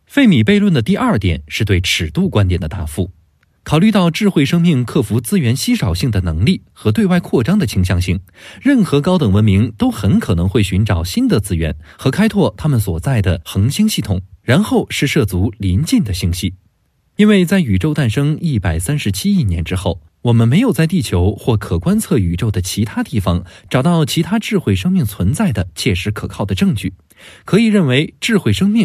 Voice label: 图书配音试音

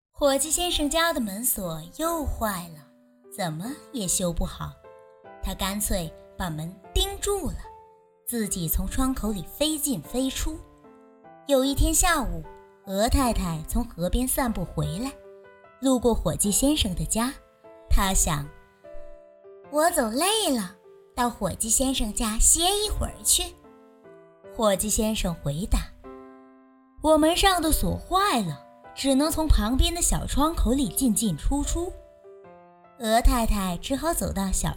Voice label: 童话故事, 女; 伙计先生与鹅太太

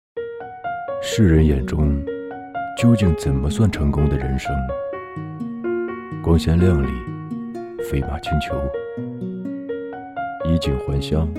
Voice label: 旁白走心广告

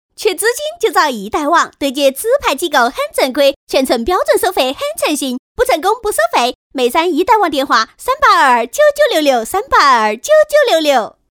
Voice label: 川话广告; 川话广告易贷网

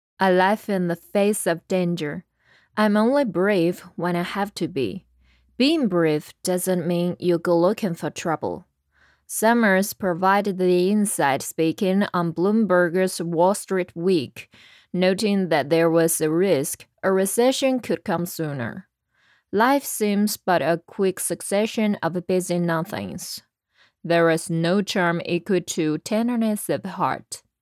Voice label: 美式 口音

